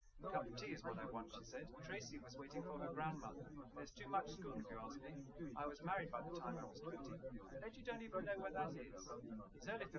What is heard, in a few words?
test male liverec 250ms 5cm sim; SiSEC08; SiSEC underdetermined